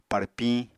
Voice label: "párpiñ", Apatani